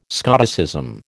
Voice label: "Scotticism", American

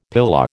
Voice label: "pilau", American

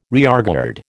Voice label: "rearguard", American

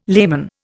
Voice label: "layman", Indian

